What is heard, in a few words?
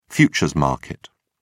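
"futures market", British English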